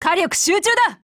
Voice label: JP; NTW20Mod; ATTACK